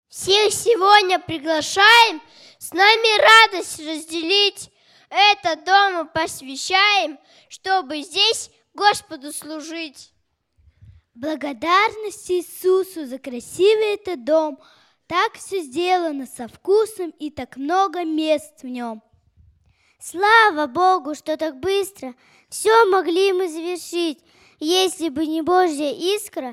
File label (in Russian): Детская декламация; Сибирь-13